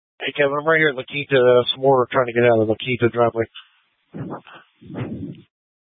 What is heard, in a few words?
Tactical Incidents, scanner